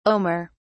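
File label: "omer", English, British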